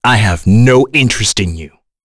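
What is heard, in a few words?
Vox Skill, voices heroes English; kingsraid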